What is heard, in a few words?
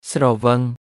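"ស្រវឹង", Khmer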